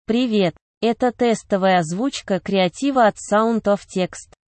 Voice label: ru sound of text